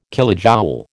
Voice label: "kilojoule", American